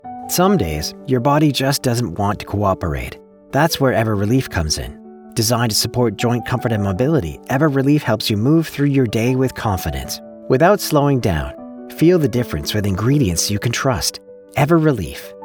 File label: W MUSIC